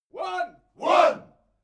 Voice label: military shout english "one"